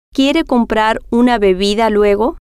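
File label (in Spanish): quiere Comprar Bedida Luego, spanish, female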